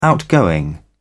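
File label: "outgoing", British English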